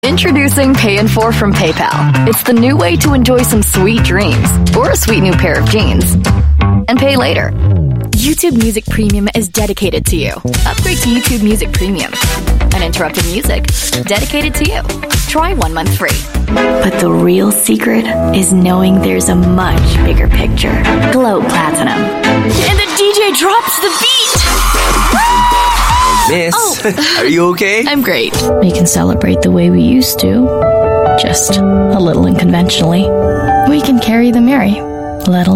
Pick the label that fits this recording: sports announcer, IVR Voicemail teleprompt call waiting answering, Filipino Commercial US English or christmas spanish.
Filipino Commercial US English